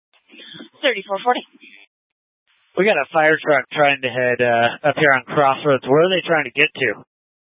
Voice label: Police Department Dispatch; Grand Junction

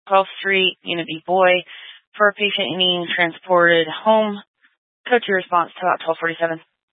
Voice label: Fire Dispatch, scanner

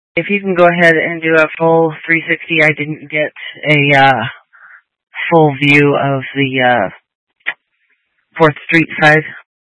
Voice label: Tactical Incidents, scanner

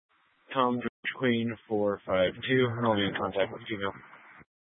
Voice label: Sheriff Dispatch, scanner; Mesa County

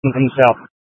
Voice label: Fire Dispatch, Scanner audio recording

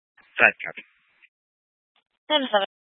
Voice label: Fire Department Dispatch; Grand Junction

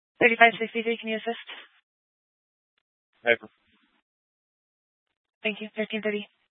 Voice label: Police Department Dispatch, scanner

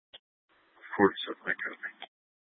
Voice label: Mesa County Sheriff Dispatch

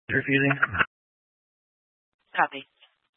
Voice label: Mesa County Sheriff Dispatch

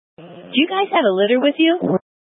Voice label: Incident Tac, scanner

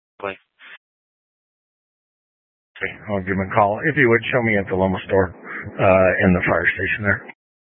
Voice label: Incident Tac, scanner; Incident Tac 1

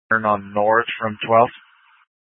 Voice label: Tactical Incidents, scanner

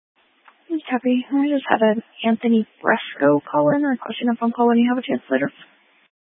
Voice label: Police 1 Dispatch

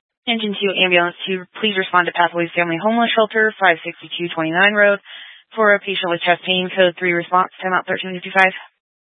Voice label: Fire Dispatch, scanner

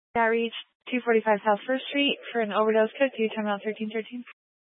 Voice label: Fire Dispatch

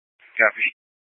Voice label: Police Dispatch; Police 1 Dispatch

